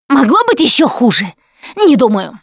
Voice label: reaction negative, russian, Survivor Voice Producer